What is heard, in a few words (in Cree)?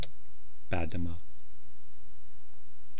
"paatimaah", eastcree